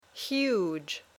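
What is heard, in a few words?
"huge", English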